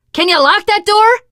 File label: close the door, teen girl, survivor voice; source engine; left 4 dead 2